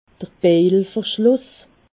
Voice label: "bejelverschluss", alsace